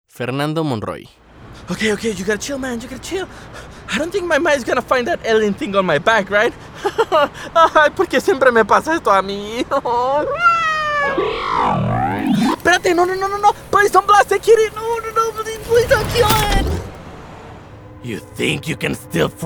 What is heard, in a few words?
Character, Male voices